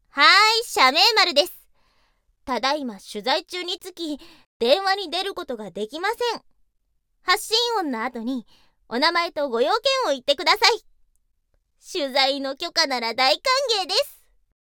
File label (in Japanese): イメージボイス文 留守電っぽいイメージ; 東方素芸祭